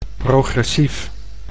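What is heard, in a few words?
"progressief", Dutch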